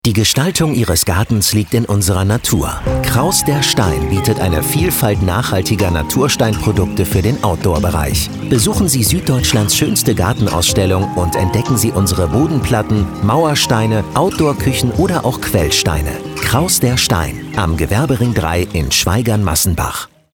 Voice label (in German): Funkspot; Krauss der Stein